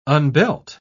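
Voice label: "unbelt", British English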